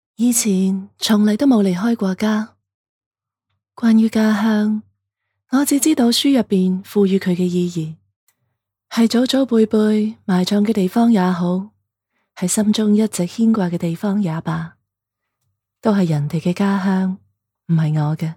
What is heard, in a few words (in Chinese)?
粤 情怀类